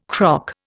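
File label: "croc", Indian English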